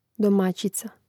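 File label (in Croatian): "domaćica", Hrvatski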